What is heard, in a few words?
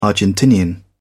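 "argentinian", British English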